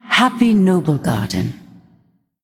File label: Happy Noblegarden, Female Ghost